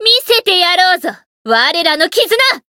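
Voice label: chant, Japanese; tiramisu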